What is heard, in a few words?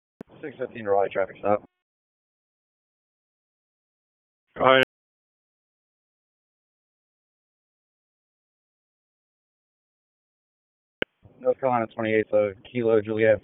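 Police Southwest District Dispatch, p25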